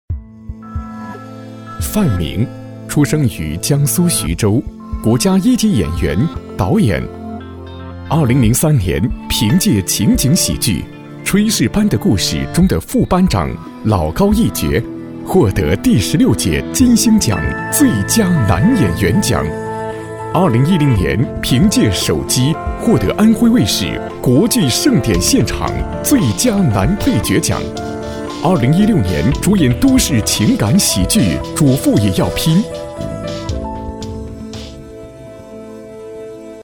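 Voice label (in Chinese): 男人物介绍颁奖介绍; 演员范明个人介绍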